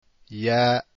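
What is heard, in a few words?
yaa, arabic letters; deen path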